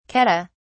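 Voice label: "keddah", British English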